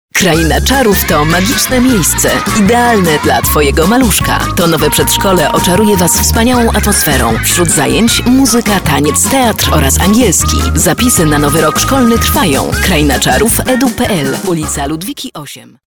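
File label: Polish, female, commercial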